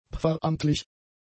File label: "pfarramtlich", German; adjectives